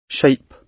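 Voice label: "shape", British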